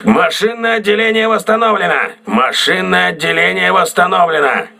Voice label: voice message engine room repaired, crew ships russian